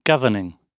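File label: "governing", British English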